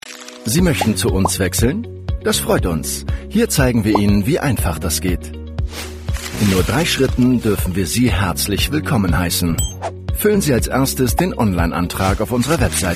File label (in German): OFF Erklaervideo; IKK Vivy